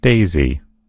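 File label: "daisy", American English; gcompris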